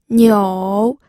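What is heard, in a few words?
"niu", third tone, pinyin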